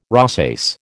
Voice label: "rosace", American